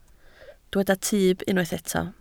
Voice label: "tyb", Welsh